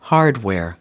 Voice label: "hardware", US